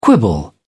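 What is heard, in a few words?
"quibble", British English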